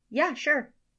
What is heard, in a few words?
girl sure; Inseminator